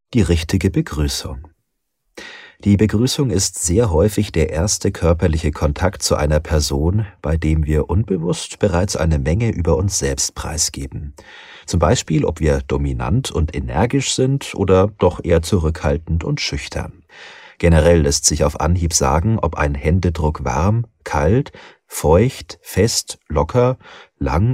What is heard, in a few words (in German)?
Hoerbuch Sachbuch